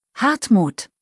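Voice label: "Hartmut", German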